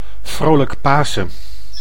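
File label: "Vrolijk Pasen", Dutch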